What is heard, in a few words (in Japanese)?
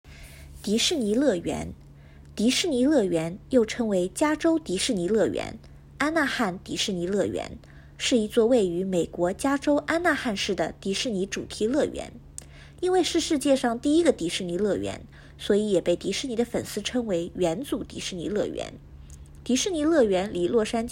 中国語ボイスサンプル